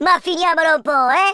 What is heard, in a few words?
leave me alone, speech Italian